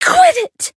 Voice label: Voice damaged